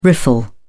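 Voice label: "riffle", British English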